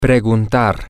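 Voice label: Spanish, to ask, male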